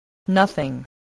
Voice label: "nothing", voice, spelling